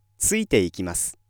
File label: male